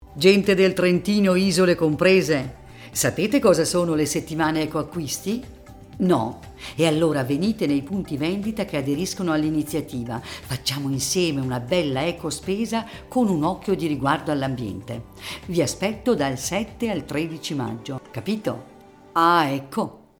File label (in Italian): spot radio; Ecoacquisti